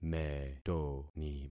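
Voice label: "metoni", concatenated syllables; Affixing experiment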